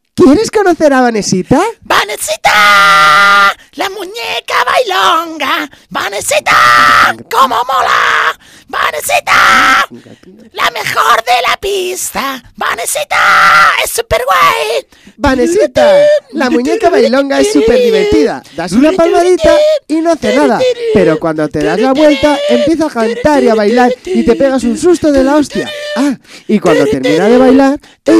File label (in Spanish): Publicidad